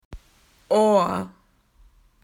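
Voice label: "ear", Australian English; PictureDictionary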